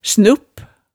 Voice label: "snupp", hemsedalsmaalet

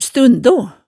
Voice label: "stundo", hemsedalsmaalet

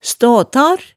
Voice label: "staatar", hemsedalsmaalet

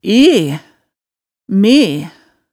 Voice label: "e, me", hemsedalsmaalet

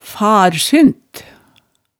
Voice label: "farsynt", hemsedalsmaalet

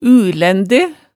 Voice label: "ulænde", hemsedalsmaalet tale

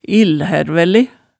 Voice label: "illhærvælæe", hemsedalsmaalet

